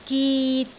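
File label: "git3", Cantonese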